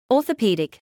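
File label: "orthopaedic", British English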